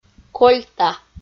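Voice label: "кольта", Komi, female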